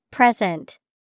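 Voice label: present, English vocabulary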